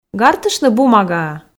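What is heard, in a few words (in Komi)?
"гартыштны бумагаӧ", Komi, female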